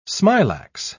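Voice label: "smilax", British English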